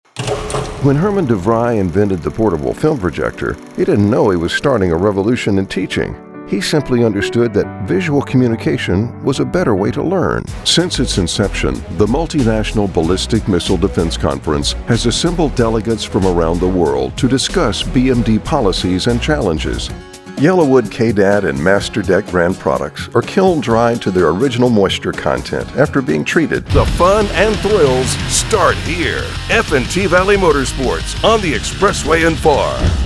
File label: Bass VO